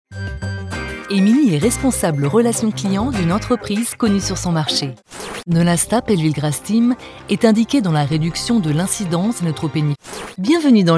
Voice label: Corporate